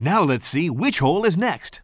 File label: commentator